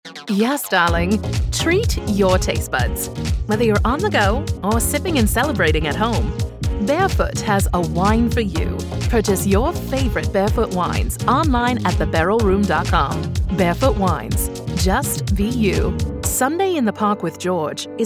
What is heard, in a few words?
Mid Atlantic Transatlantic